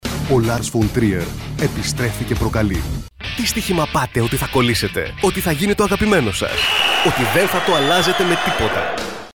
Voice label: MALE VOICE HYPER